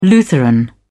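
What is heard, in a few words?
"lutheran", British English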